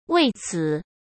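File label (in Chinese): "为此", Mandarin Chinese; hsk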